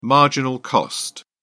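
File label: "marginal cost", English, British English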